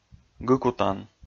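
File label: "гыкутан", Komi, male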